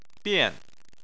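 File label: "bian" fourth tone, Chinese; Chinese Surnames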